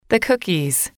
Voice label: the cookies, English